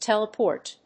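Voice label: "teleport", English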